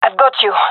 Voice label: covering friend, gendarmerie fem; vo